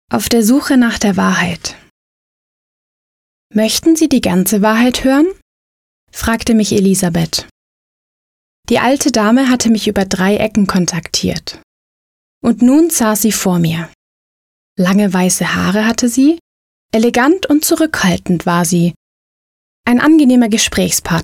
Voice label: Hörbuch; Sprecherakademie; Auf der Suche nach der Wahrheit

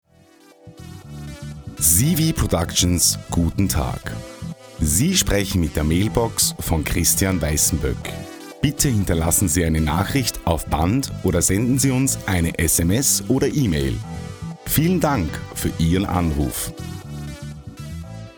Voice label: Telefon Ansage; CV-Productions

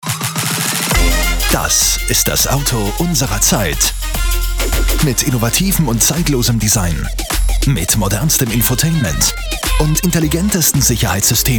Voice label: Kino-Spot

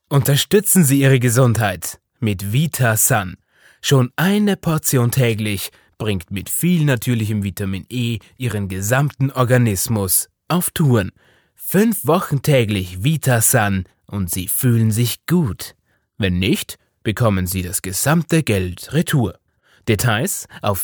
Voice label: Werbung locker

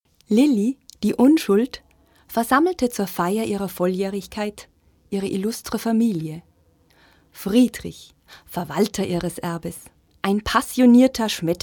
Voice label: Werbung; Darbo

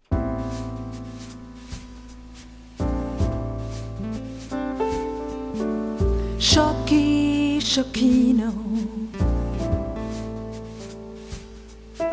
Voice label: Schoki Werbung

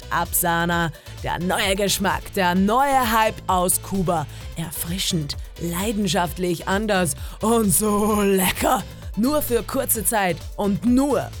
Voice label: Werbung freaky

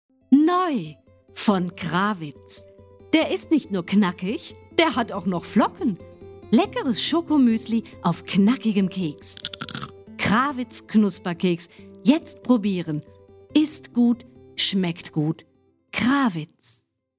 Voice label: werbung

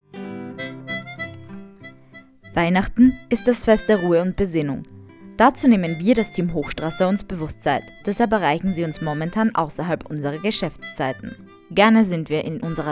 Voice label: DEUTSCH; Anrufbeantworter; Feindestillerie Hochstrasser

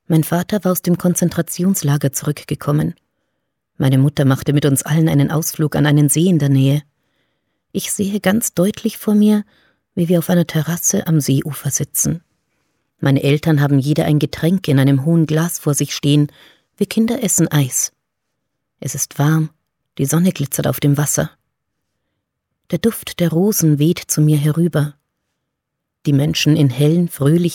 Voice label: Hörbuch; Kein menschlicher Makel

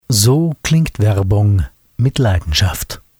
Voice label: Werbung; Lichtblau

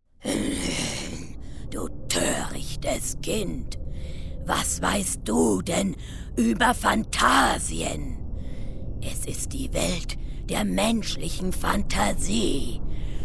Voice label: besondere Stimmen

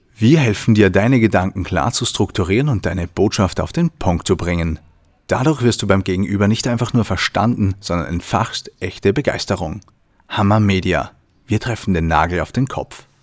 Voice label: Werbetext

